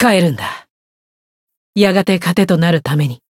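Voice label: Voice win, japanese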